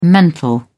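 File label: "mental", British English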